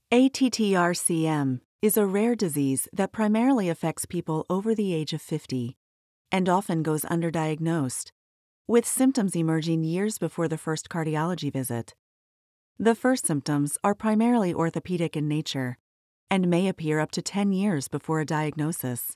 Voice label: Video medical pharma positive professional informational; Pfizer